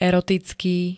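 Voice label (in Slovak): "erotický", Slovak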